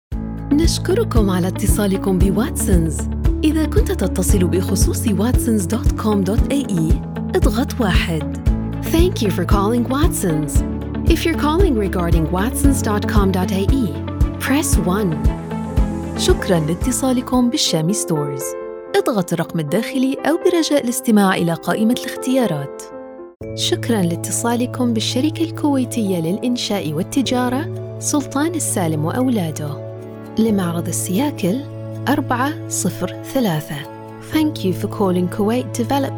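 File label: Showreel IVR All Dialects, Arabic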